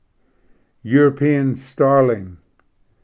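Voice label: "european starling", English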